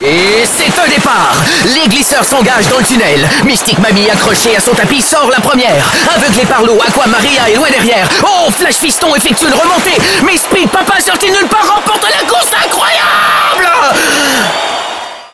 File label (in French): francais quebecois naturel animateur personnage humour; valcartier